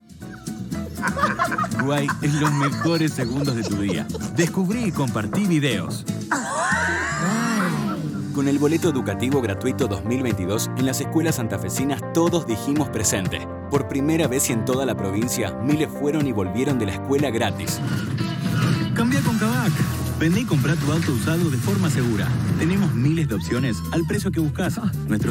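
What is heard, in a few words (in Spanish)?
generico Argentina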